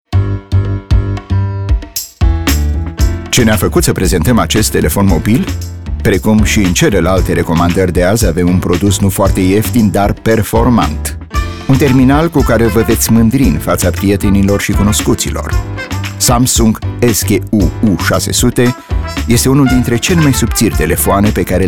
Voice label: RUMAENISCH Werbung; Samsung

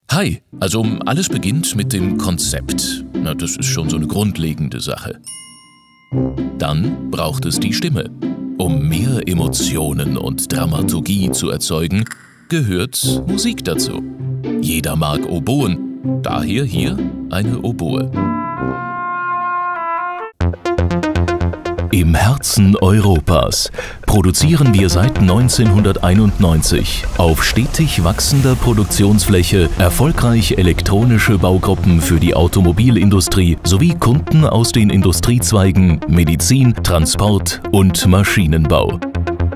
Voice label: Imagefilm

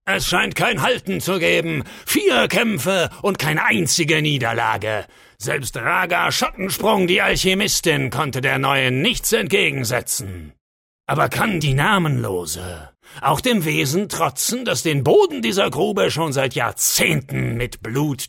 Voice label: Computerspiel Kampfarena Ansager; Enderal

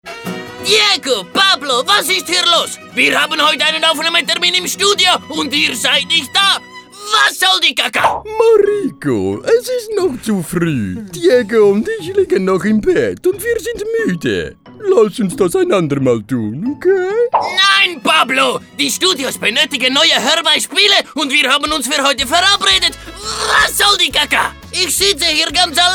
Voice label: TRICKSTIMMEN; MIETMAUL

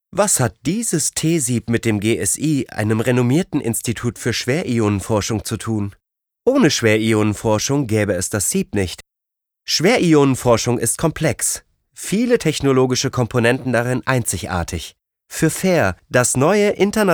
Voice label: Imagefilm

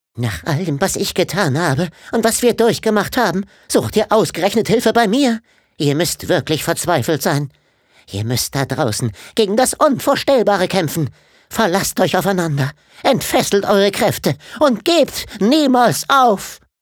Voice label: Comic Stimme

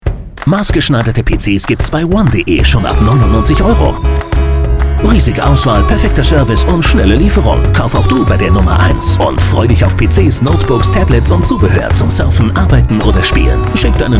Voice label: TV Spot; One de

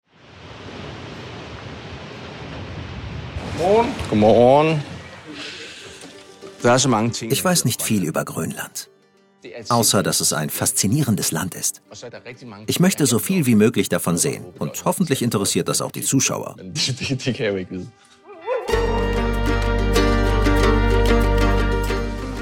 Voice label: Doku Off Overvoices

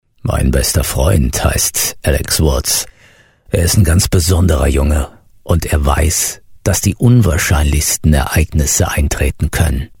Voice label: Schauspiel und Trickfilm Stimme

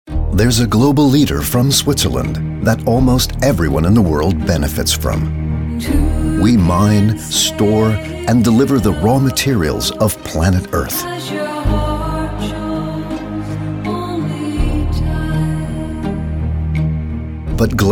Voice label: Mood Amerikanisch; Glencore

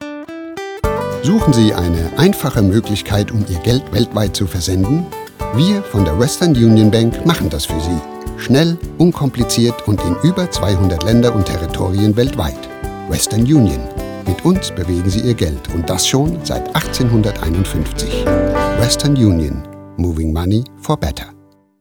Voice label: Werbung; Western Union

